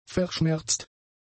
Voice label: "verschmerzt", German; adjectives

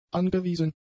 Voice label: "angewiesen", German; adjectives

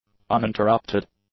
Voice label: "uninterrupted", English; adjectives

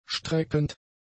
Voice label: "streckend", German; adjectives

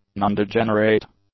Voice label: "nondegenerate", english; adjectives

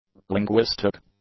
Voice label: "linguistic", english; adjectives

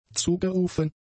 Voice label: "zugerufen", German; adjectives

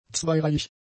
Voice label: "zweireihig", German; adjectives

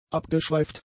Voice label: "abgeschweift", German; adjectives

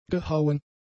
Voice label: "gehauen", German; adjectives

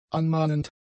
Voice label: "anmahnend", German; adjectives

